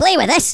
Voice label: "FIREBALL", Angry Scots; Worms Armageddon